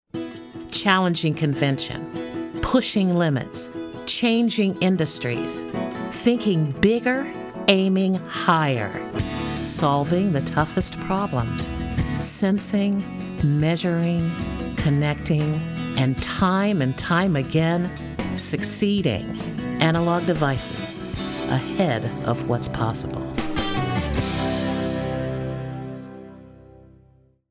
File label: promo narration; Analog Devices